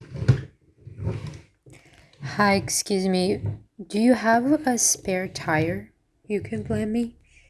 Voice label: noisy reference